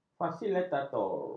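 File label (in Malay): "fasilitator", Malay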